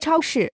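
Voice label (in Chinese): "超市" Chinese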